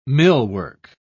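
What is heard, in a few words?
"millwork", British English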